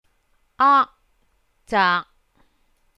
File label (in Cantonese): aak zaak3, cantonese